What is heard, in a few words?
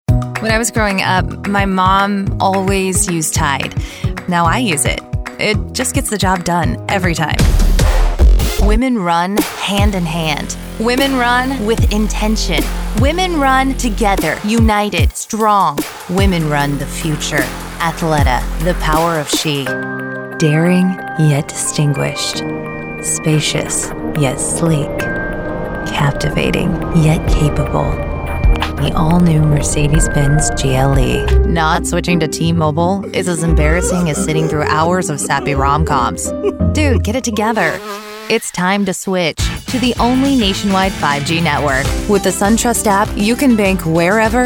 Commercial